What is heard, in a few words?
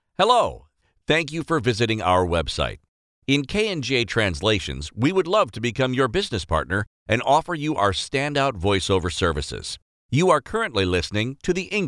english male